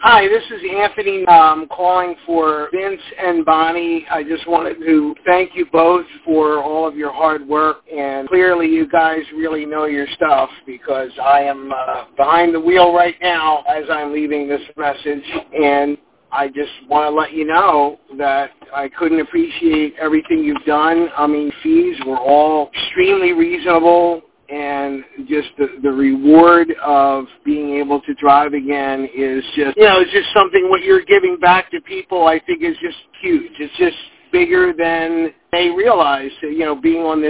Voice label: Happy voicemail